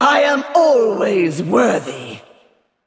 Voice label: Female Val'kyr Picked

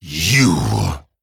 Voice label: Male Dragon TRIGGER SWEEPING STRIKES

